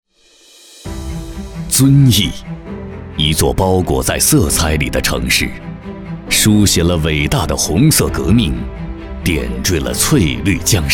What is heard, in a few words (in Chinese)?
男 企业宣传 浑厚 磁性; 中级人民法院环资